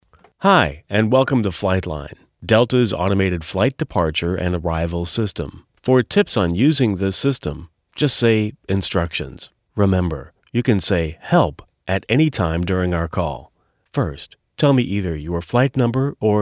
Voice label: telephony